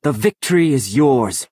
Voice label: Imperial male concede